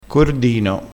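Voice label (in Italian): "cordino", vocabolario dialettale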